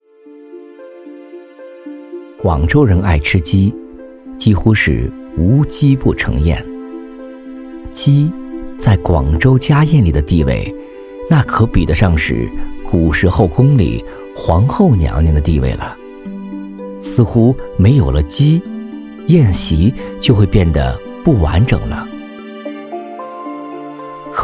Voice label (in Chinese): 专题温暖叙述; 牛头